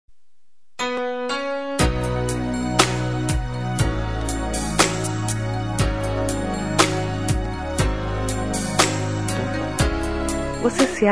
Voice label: ROM VOCE E MUITO BOBO FEM

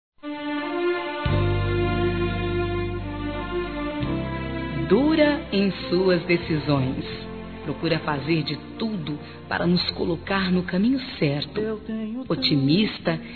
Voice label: ANIV. MÃE F

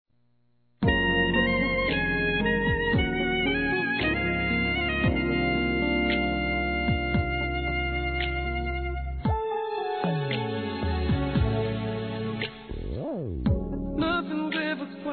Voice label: Boa Viagem Romântica MASCULINO; Telemensagens; Telemensagens 2017

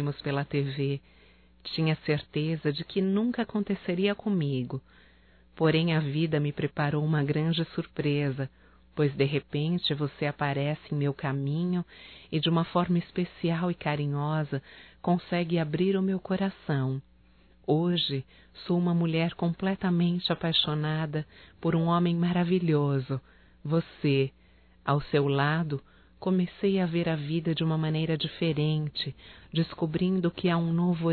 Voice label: ROMANTICA PARA CASAIS(F), Românticas fem

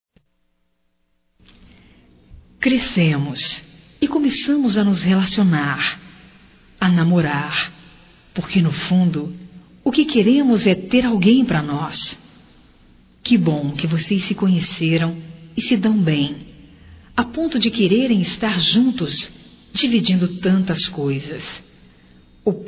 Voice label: Homenagem aos Noivos Feminino; Homenagens; Telemensagens 2017

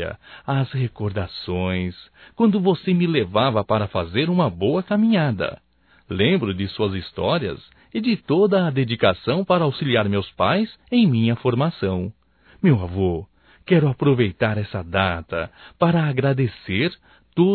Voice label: NATAL AVÔ, masc; Natal e ano novo; Telemensagens 2017

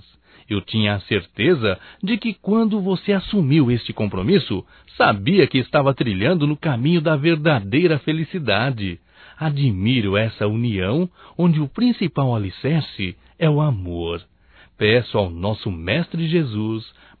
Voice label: ANIV CASAMENTO AMIGA (M); Casamentos

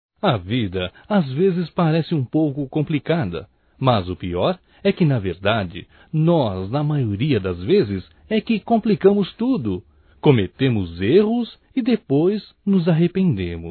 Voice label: AGRADECIMENTO POR ACEITAR AS DESCULPAS M, Só Voz; Telemensagens; AGRADECIMENTOS

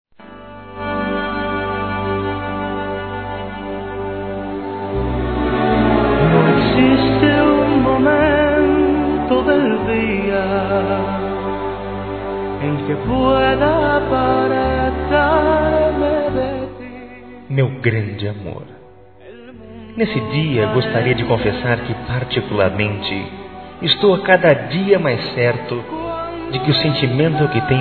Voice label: Bodas de Ouro Masculino, Telemensagens; Bodas e Casamento

